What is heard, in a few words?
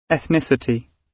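"ethnicity" British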